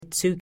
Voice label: "zu", Pennsylvania Dutch; ts in hats